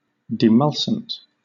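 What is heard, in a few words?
"demulcent", British English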